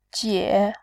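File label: "jie", Mandarin, third tone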